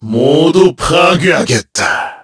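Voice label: Vox Skill, Korean; KaselB; kingsraid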